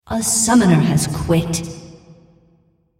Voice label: female, On Leave